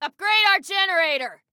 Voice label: Upgrade Generator, Female